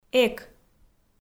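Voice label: "эк" Komi, female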